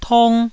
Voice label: "tong1", taiwanese

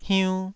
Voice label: "hiun2", taiwanese

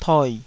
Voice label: "toi3", taiwanese Syllables

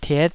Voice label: "tiet5", Taiwanese

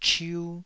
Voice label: "chiu2", taiwanese

